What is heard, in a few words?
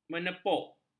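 "menepuk", Malay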